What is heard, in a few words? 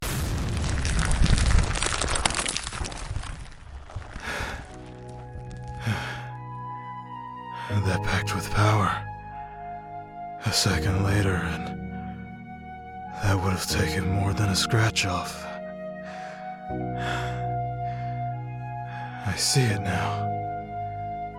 Animation Action